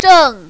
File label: "zheng4", mandarin, female; 正; zì; fǎ; чжэн4